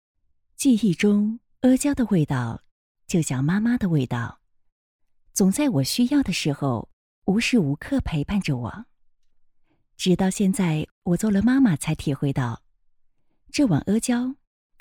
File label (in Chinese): 女 品牌广告; 东阿阿胶